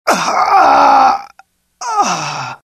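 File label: death, dialogue generic Italian; mohaa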